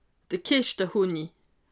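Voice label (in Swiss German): "keschte", Bas-Rhin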